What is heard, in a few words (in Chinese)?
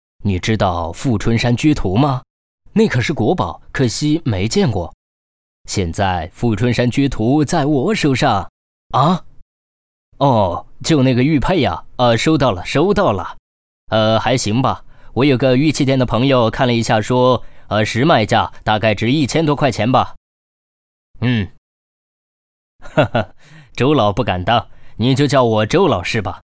防诈骗剧本配音 短剧配音; 富春山居图